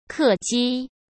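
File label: "客机", Mandarin Chinese; hsk; chinese-flashcards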